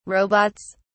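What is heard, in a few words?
"robots", British English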